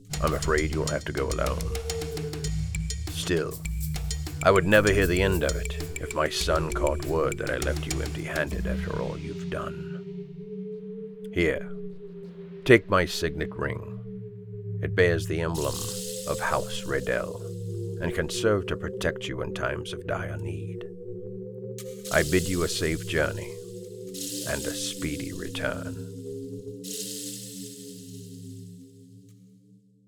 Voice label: Animation